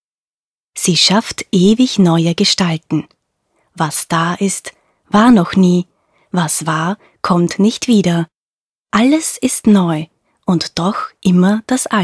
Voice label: voice over natur